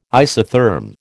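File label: "isotherm", American English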